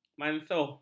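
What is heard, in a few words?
"mansuh", Malay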